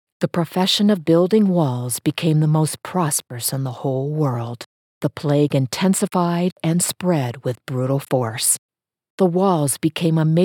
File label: english american